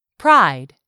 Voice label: "pride", English; 3000 words